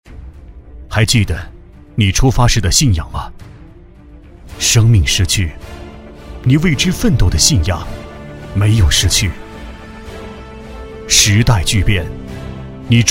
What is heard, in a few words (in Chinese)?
低沉 信仰 公益广告